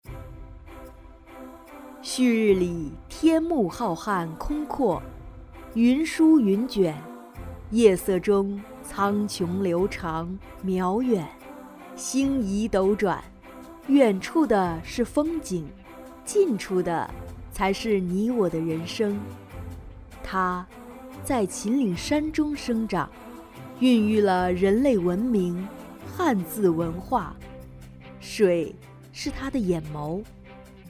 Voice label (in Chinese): 女声大气朗诵